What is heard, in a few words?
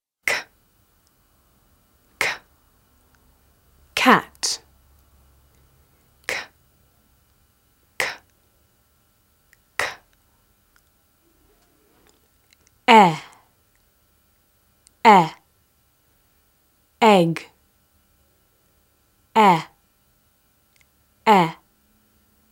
Phonics Spoken Guide Ck E